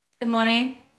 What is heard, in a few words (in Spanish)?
Voice Acting es F0